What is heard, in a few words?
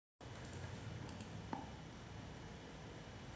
voice message